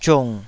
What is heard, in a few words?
"ziung3", taiwanese